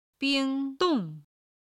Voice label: "bing1 dong4", Chinese